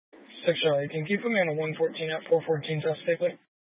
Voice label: Mesa PD Dispatch